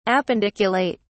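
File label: "appendiculate", British English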